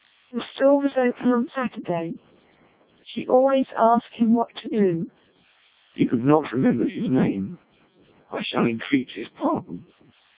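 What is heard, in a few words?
British speech, CODEC2 vocoder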